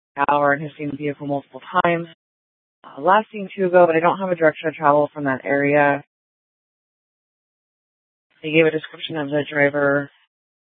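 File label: Police Department North Dispatch, scanner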